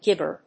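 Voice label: "gibber", English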